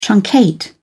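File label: "truncate", British English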